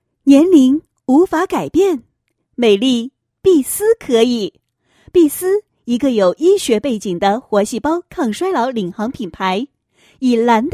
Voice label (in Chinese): 女 促销; 东方新娘婚纱摄影活动促销